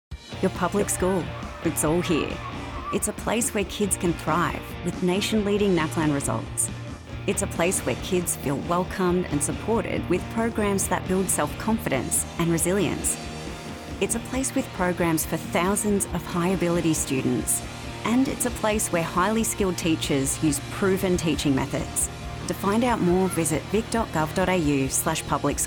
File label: Radio; Cyclone; Department Of Education; Your Public School; DOE